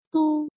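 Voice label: "Dōu", Chinese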